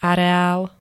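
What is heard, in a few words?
"areál", Slovak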